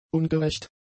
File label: "ungerecht", German; adjectives